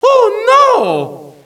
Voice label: oh no, Echo inside caves, underground; DS; ML BOWSERSINSIDE; Mario